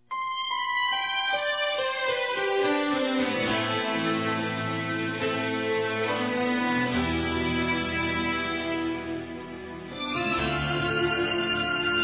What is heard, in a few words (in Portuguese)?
Aniversário Irmã Evangelico Feminino; Aniversários